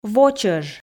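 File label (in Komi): "во чӧж", Komi, female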